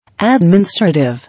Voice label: "Adminstrative", English

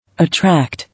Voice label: "Attract", English

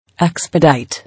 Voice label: "Expedite", English

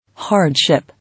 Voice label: "Hardship", English

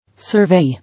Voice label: "Survey", English

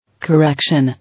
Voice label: "Correction", English

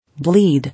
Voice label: "Bleed", English

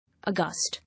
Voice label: "Aghast", English

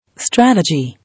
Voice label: "Strategy", English

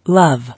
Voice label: "Love", English

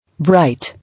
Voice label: "Bright", English

